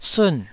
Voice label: "sun4", taiwanese Syllables